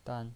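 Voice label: "dan", Mandarin Chinese pinyin voice, neutral tone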